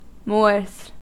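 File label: "moors", US English; pronunciations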